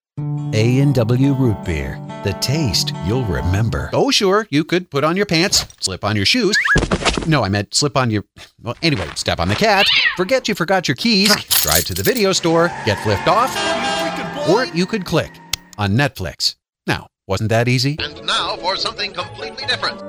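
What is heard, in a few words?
Commercial, voice over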